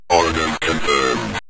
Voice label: orders confirmed, synth voice; Kenney's Sound Pack